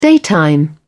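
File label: "daytime", English, British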